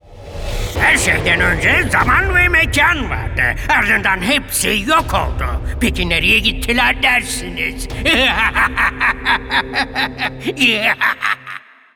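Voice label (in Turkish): animatik karakter, seslendirme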